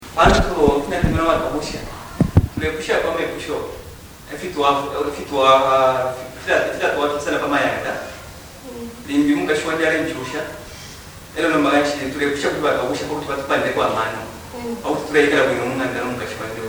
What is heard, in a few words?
bemba central